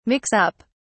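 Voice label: "mix-up", British English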